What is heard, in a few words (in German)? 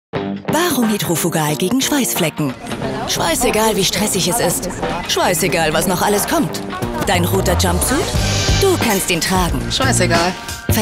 Funk TV Spot; Hidrofugal